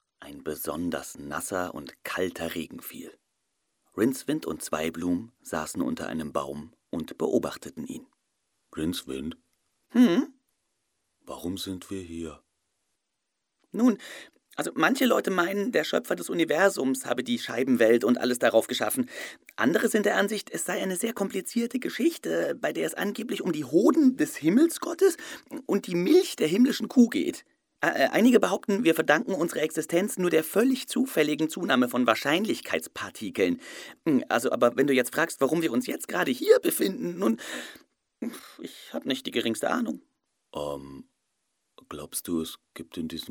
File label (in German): Hoerspiel; Terry Pratchett